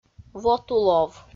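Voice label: "вотулов", Komi, female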